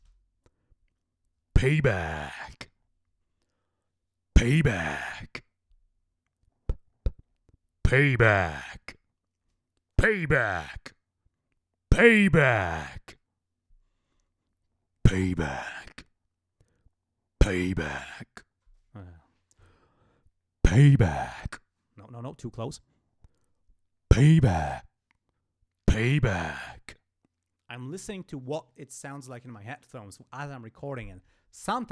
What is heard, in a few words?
Announcer